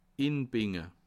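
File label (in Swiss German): "inbinge", Bas Rhin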